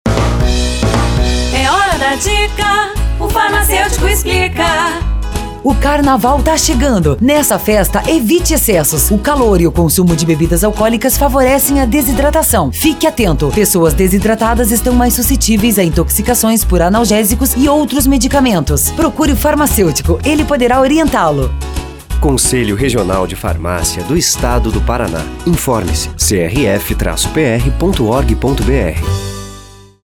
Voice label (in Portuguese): Spot; No Carnaval evite bebidas alcoolicas e medicamentos